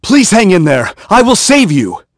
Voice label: vox, en; kingsraid; voices heroes